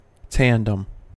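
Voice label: "tandem", US English; pronunciation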